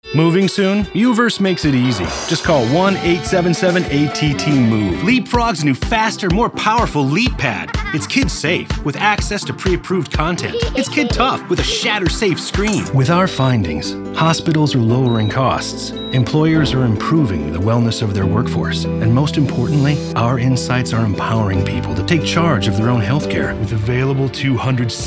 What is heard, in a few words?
Commercial